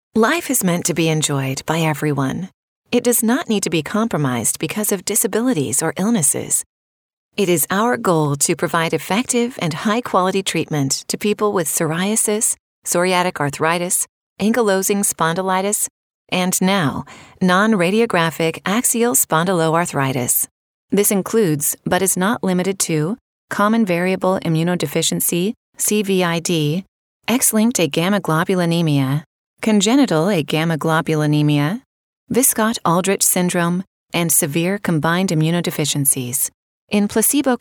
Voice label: ELearning Medical